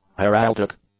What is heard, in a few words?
"heraldic", English; adjectives